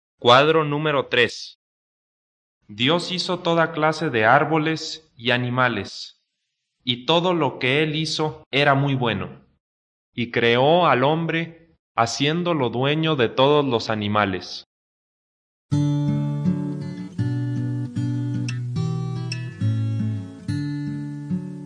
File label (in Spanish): good news spanish mexico